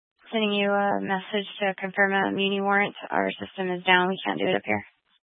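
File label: Police 1 Dispatch, Scanner audio recording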